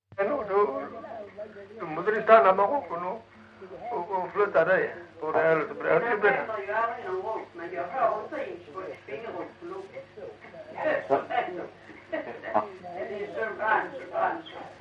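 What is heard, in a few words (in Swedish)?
Lilla Harrie Skåne, Svenska sagor dialekter; Folkminnen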